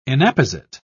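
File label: "inapposite", British English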